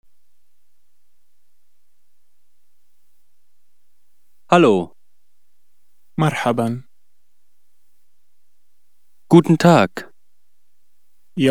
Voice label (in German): arabisch